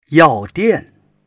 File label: "yao4 dian4", Chinese